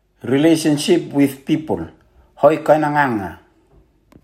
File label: Relationship with people Hiknagaga, Rotuman; Rotuman Language Week; 2022 Pacific Language Weeks